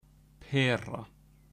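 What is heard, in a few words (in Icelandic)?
"pera", Icelandic; matarhefti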